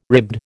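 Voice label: "ribbed", American English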